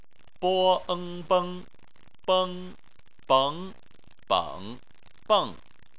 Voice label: "beng", Chinese